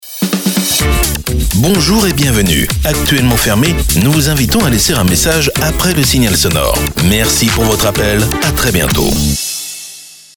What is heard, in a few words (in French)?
HOMME